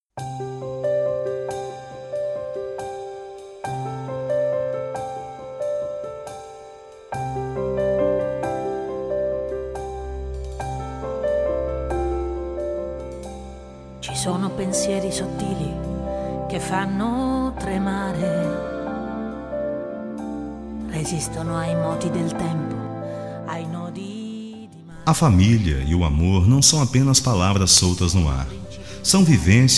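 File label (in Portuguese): Aniversário Filha Familiar Masculino, telemensagem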